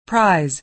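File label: "prize", American English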